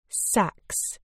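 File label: "sax", British English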